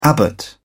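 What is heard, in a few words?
"abbot", British English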